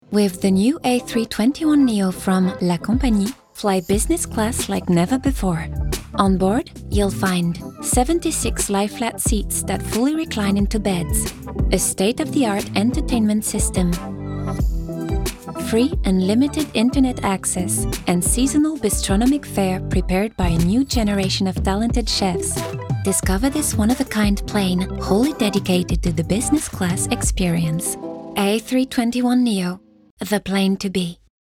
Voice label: PUB WEB; Lacompagnie

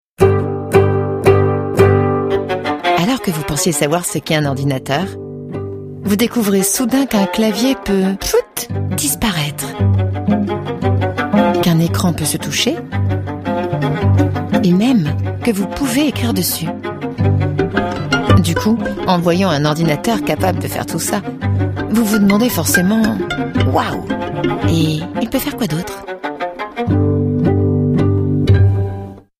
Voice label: Pub Tv Naturelle calme; Ipad pro

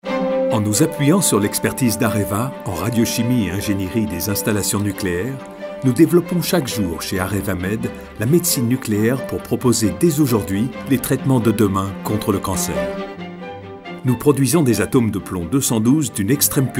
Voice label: décidé voix off voice over; Areva

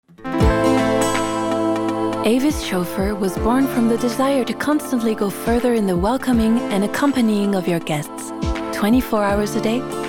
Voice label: instit anglais; Avis Chauffeur

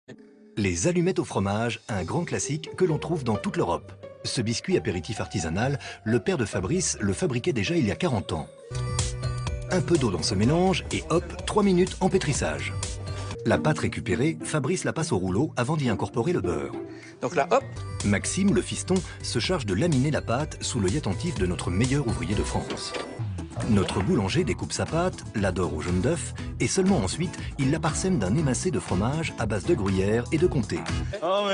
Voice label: naturel dynamique; Boulangerie

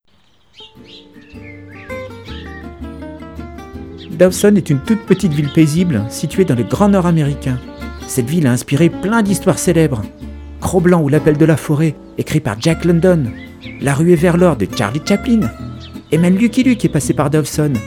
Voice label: voix preado

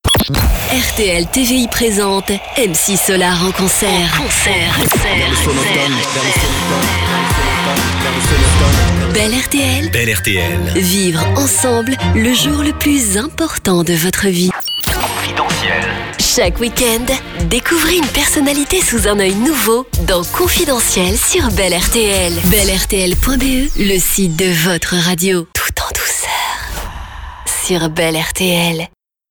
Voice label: HABILLAGE souriante dynamique; BEL RTL

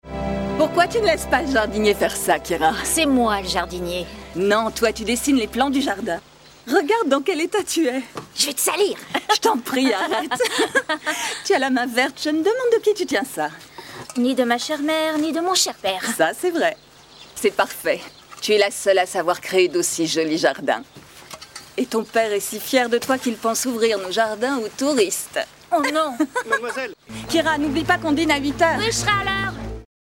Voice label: doublage maternelle complice; Rosamunde Pilcher; KERSTIN GÄHTE

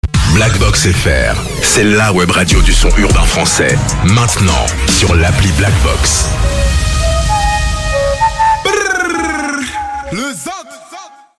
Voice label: Habillage TV Radio FR

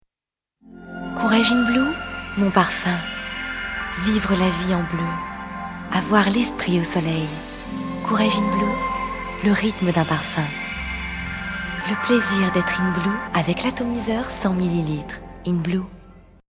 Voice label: pub radio jeune fille; Courrèges

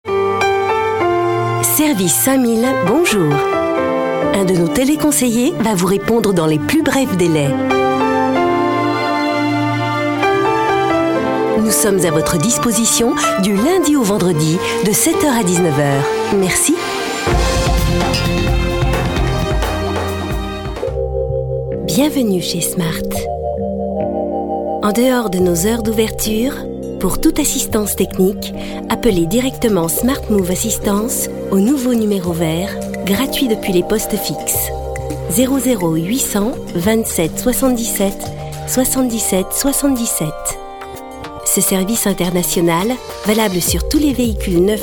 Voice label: MEDLEY TELEPHONIE

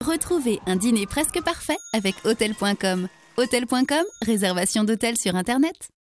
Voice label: pub, voix; m6

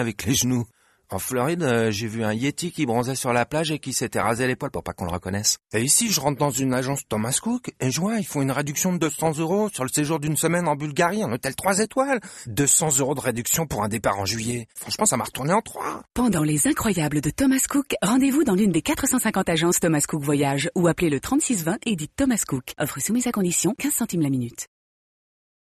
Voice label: PUB RADIO SAHARA; THOMAS COOK

